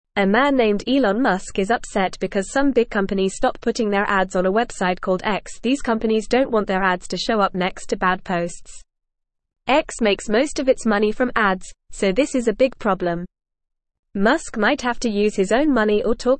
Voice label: English Newsroom Lower Intermediate FAST Reading; Elon Musks Website X is in Trouble

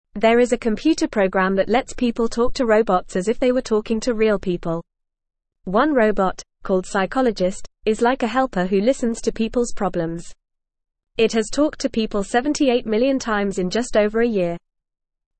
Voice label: English Newsroom Lower Intermediate FAST Reading

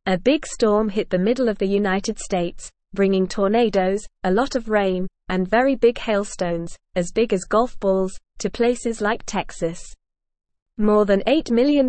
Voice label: English NORMAL Reading; Big Storm Causes Damage and Danger in United Stat

